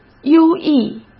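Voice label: "优异" Mandarin Chinese; hsk; chinese-flashcards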